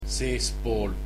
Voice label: inside, estonian